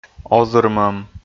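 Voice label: "озырмӧм", Komi, male; kpv audio dict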